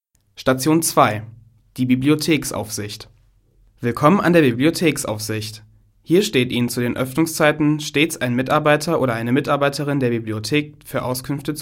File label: audioguide deutsch; bibliothek